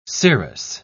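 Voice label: "scirrhus", British English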